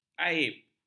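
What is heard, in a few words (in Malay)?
"aib", Malay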